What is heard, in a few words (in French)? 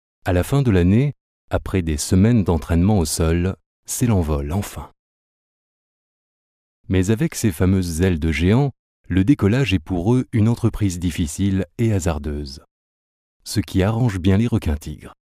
H documentaire; FR; Français